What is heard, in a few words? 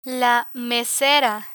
"mesera" Spanish; learn spanish